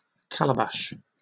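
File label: "calabash" English, British accent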